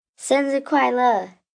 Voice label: Mandarin Birthda, 1 girl, 11 yrs., Mandarin, (Happy Birthday), human voice; Holiday; Occasions, Foreign